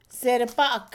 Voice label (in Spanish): miel, Mixe, Mujer; Comida; INALI